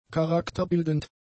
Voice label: "charakterbildend", German; adjectives

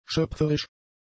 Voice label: "schöpferisch", german; adjectives

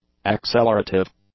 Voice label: "accelerative", english; adjectives

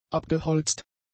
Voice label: "abgeholzt", German; adjectives

